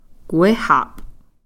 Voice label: "kwihaph", somewhere